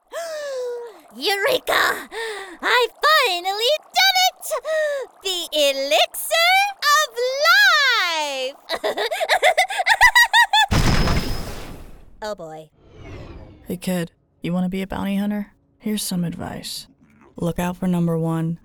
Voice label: ANIMATION